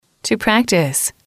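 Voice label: "to practice", English, female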